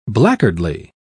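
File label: "blackguardly", British English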